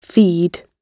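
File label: "feed", British English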